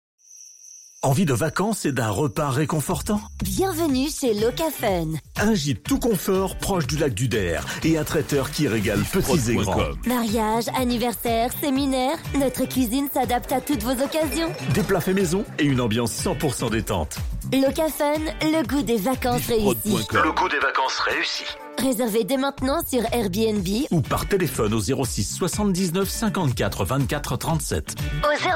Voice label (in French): Spot Gite; Locafun; Airbnb